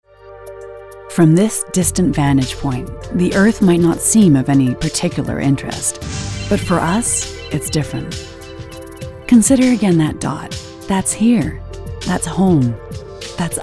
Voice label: Commercial Narrative